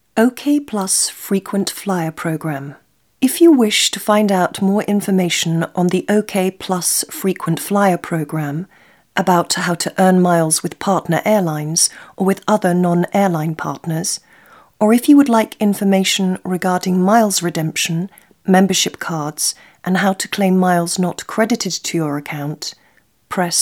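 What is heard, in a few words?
Female English ivr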